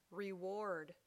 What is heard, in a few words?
"reward", English